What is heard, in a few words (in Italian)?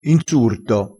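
"insurto", vocabolario dialettale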